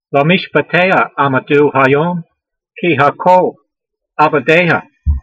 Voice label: voice, hebrew language; Psalm 119:91; le'meeshpateyha a'me'doo ha'yom, kee hakol a'va'deyha